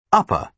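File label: "upper", British English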